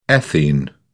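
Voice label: "ethene", British English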